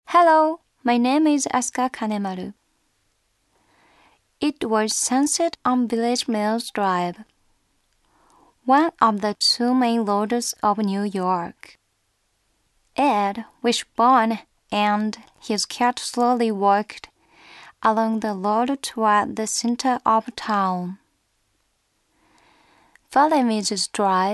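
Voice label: Voice Sample Narration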